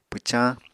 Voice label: "pwcañ", Apatani